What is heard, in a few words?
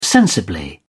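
"sensibly", British English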